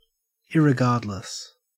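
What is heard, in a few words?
"irregardless", Australian English; pronunciations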